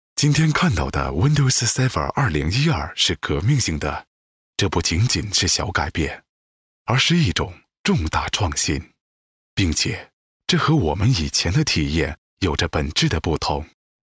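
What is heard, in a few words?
chinese male narration; voice demos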